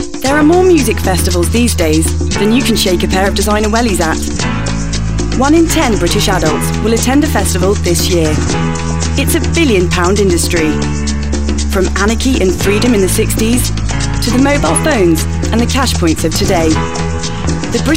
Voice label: VO Doc